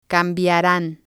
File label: "cambiaran", spanish